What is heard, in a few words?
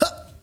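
"huh", vocal sample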